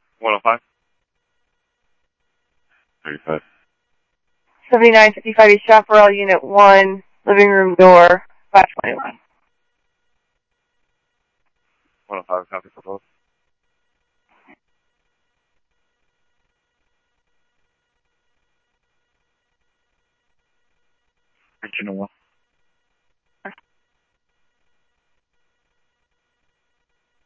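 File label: police radio dispatch, ScottsdalePolice; SCTSDLE PD - PD1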